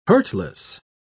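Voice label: "hurtless", English, British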